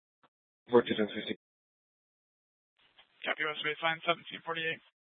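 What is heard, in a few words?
police dispatch radio, scanner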